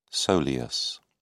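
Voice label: "soleus", English, British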